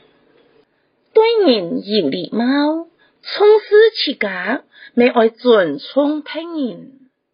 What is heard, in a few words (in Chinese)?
(四縣)客語生活常規